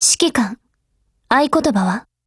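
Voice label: DIALOGUE, Japanese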